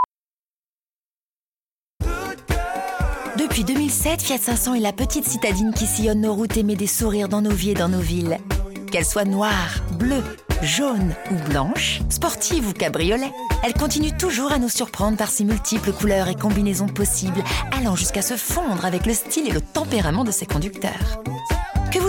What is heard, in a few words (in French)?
heureuse mutine, voix off comédienne; Fiat 500